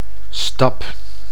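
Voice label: "stap", Dutch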